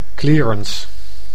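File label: "clearance", Dutch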